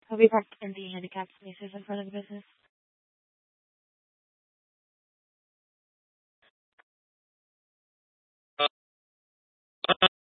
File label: Tempe PD, scanner; Rgnl Wireless Co-op (RWC)